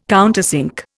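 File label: "countersink", Indian English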